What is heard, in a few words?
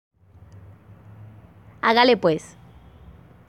Medellin Slang Expression